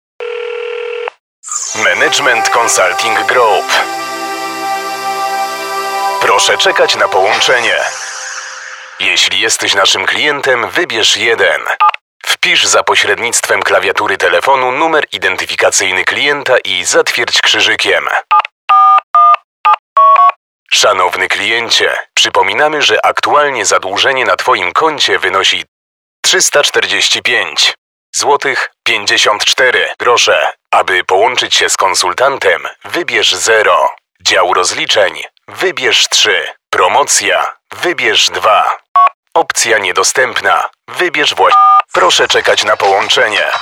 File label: IVR